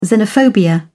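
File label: "xenophobia", British English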